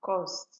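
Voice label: "cost", Nigerian Pidgin, female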